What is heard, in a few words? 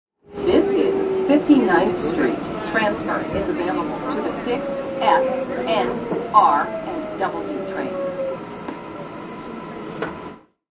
Subway PA Announcement; Part of Subways (Sound Effects:Vehicles)